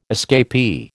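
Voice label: "escapee", American English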